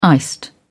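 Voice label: "iced", British English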